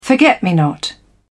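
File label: "forget-me-not", British English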